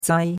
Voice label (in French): "zai1", chinois; Cours-de-Chinois